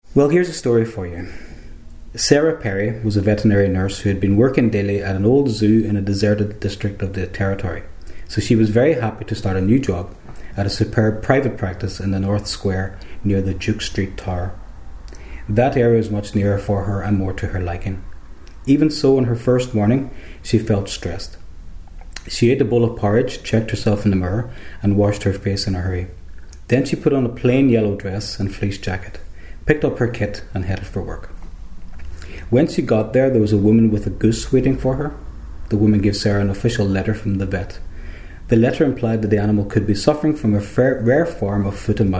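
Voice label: sentences, dialects ni; reading passage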